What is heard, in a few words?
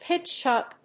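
"pitch UP", English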